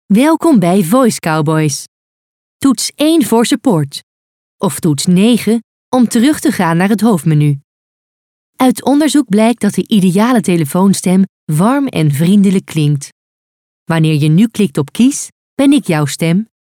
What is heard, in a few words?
Voicemail